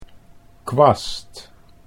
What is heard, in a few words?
"kwast", Dutch